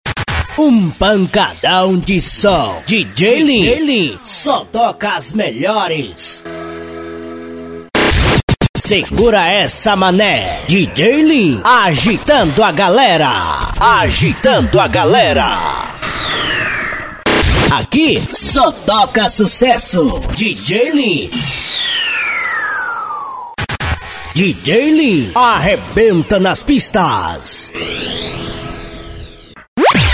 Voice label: vinhetas dj locutor

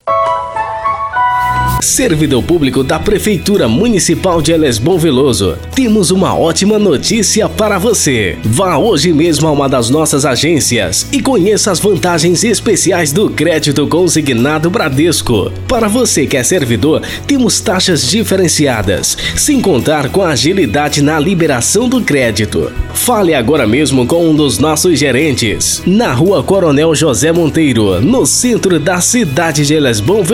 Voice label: offs locução

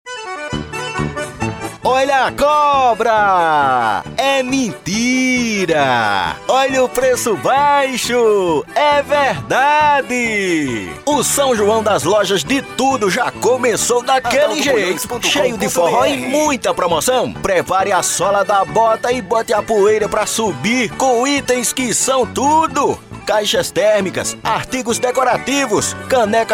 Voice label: voz com sotaque nordestino matuto animado, locutor; locucao; narracao caipi

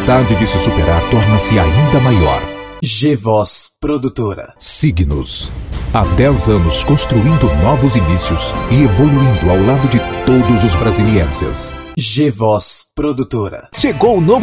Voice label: voz locutor locucoes para videos

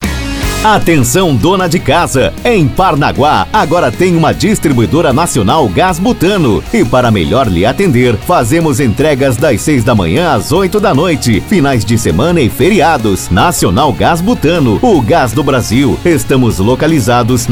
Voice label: VOZ IMPACTO GRAVE vinheta para distribuidora de gas de cozinha spot chamada; comercial

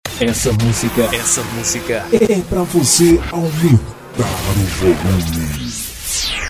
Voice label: vinhetas essa musica pra vc aumentar o volume

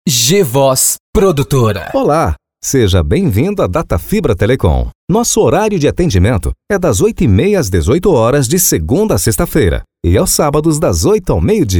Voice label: vinhetas TV voz locutor

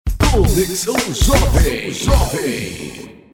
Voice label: locutor vinheta; conexao jovem

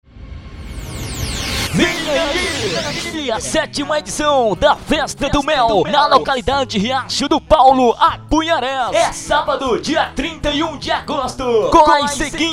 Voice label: vinheta locutor; festa do mel; riacho do paulo

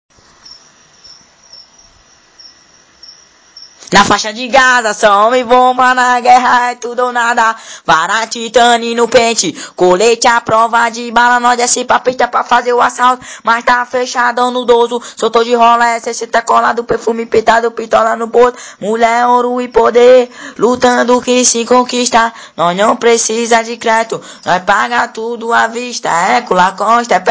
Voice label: nota de voz

